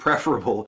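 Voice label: "preferable", American English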